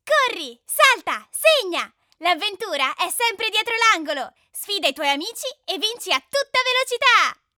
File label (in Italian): voce bambina ita